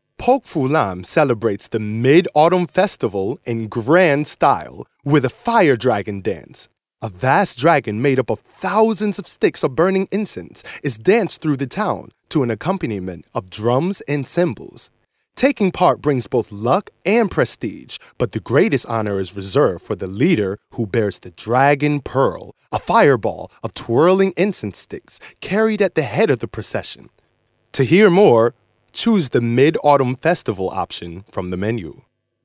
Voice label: US male corporate